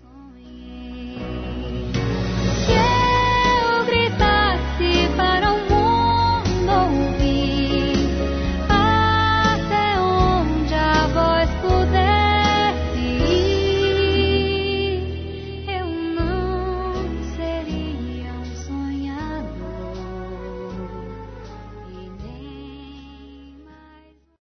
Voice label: Natal e Ano Novo Irmã Masculino; Telemensagens; Misto

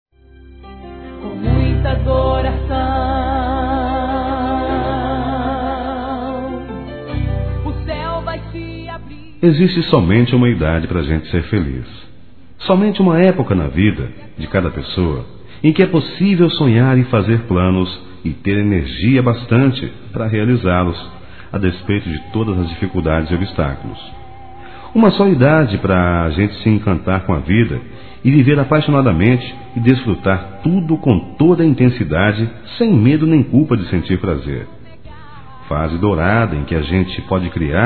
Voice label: Evangélico Aniversário Irmã Masculino

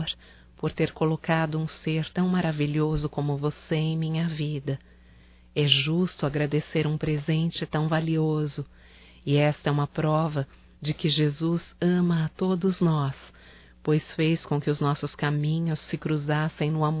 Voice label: Irmão Feminino, Telemensagens, Natal; Telemensagens 2017; Natal & Ano Novo

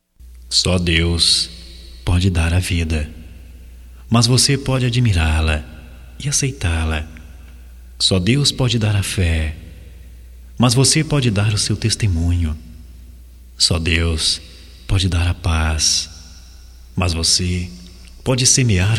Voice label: OTIMISMO EVANGELICO, Voz, masc; Telemensagens; Só Voz; Telemensagens 2017

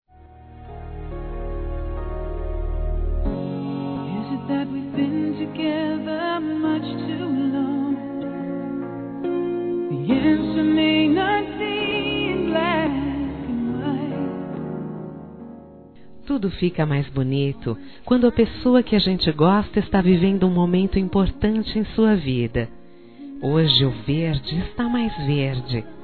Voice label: NEUTRO (F)

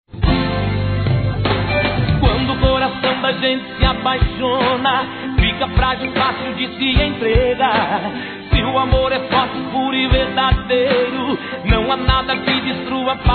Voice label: ANIV. ROMANTICO M

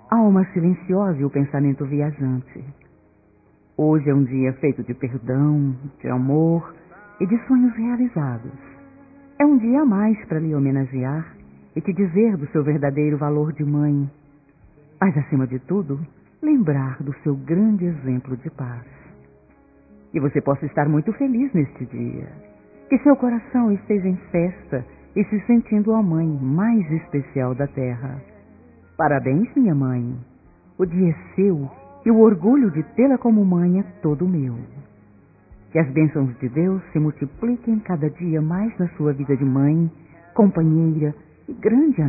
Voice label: Dia das Mães Neutro Feminino; Telemensagens 2017

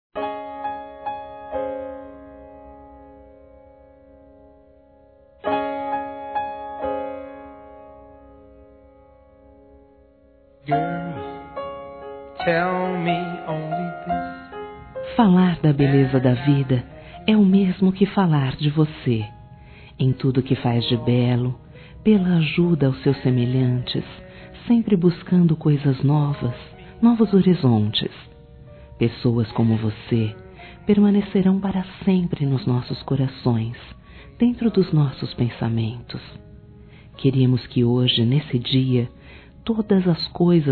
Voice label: Neutro, fem; Telemensagens 2017

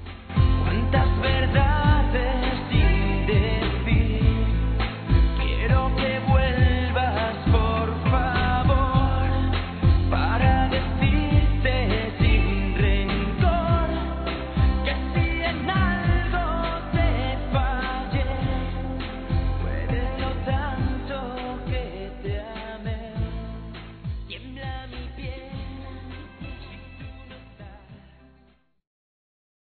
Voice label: Aniversário Sobrinho Feminino; Aniv Familiar

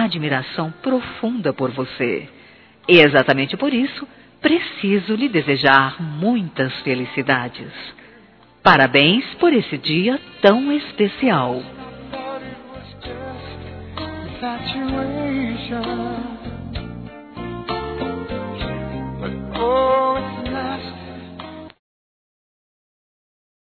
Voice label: Aniversário Compadre Feminino; Aniversários; Aniv Familiar

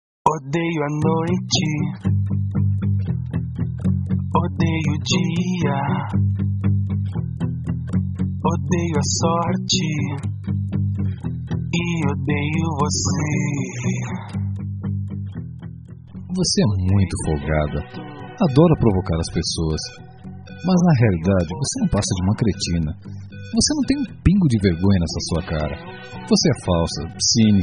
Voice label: telemensagem, ofensiva para mulher, m; duBroncas

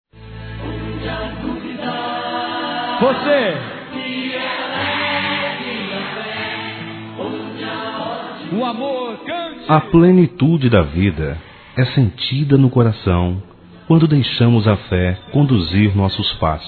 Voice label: Neutro Católico Masculino

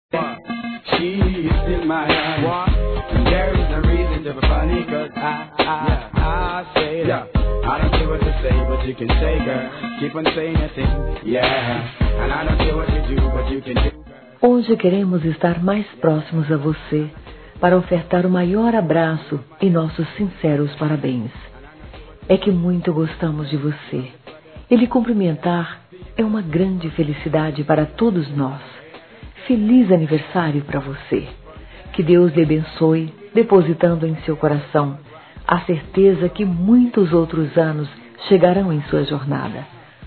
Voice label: NEUTRO PLURAL F; Aniversários; Aniversários diversos; fem

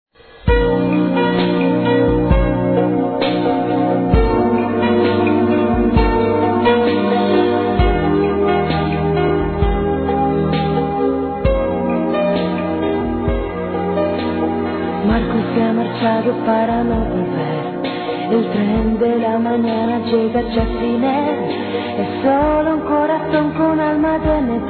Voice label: Aniversário Tio Infantil Masculino; Telemensagens 2017; Aniversários

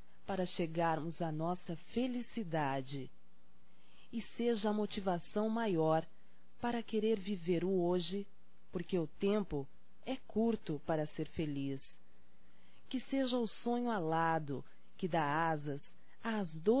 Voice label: Amor Feminino; Telemensagens 2017

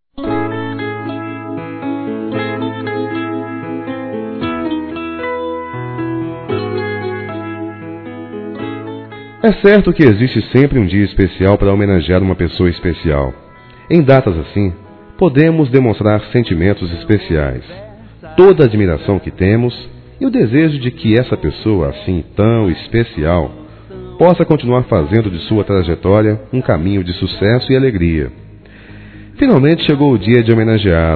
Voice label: Dia mulher geral masc; Telemensagens 2017; Dia Internacional da Mulher

